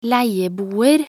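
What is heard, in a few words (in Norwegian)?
"leieboer", Norwegian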